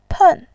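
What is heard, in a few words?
"pen", Mandarin, tone 4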